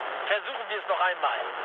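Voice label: German bomb failure mood low, speech; War Thunder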